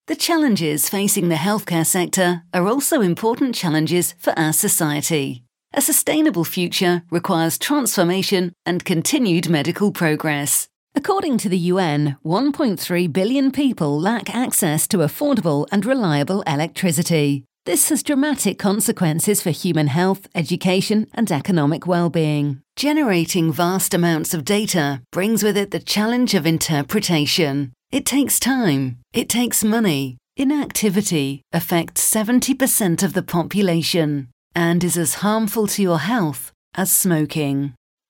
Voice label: narration